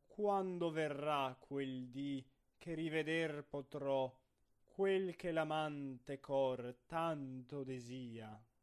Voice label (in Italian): espressivo; Bellini